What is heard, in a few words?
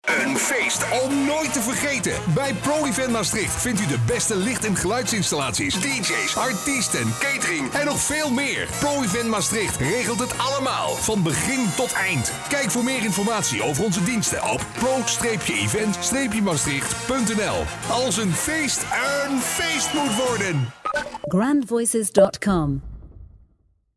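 DUT M, Dutch male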